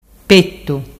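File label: "pettu", Ligurian dialect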